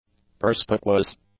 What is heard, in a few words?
"perspicuous", english; adjectives